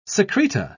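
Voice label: "secreta", British English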